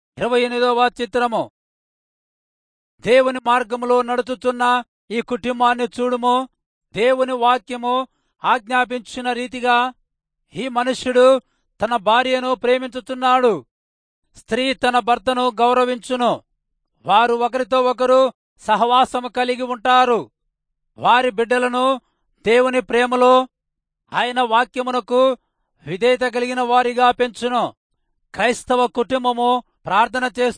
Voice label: telugu rayalseema; good news